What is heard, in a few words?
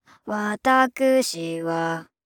pitch accent